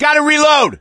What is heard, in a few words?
reload intense, gambler, survivor voice; source engine; left 4 dead 2